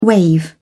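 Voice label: "waive", British English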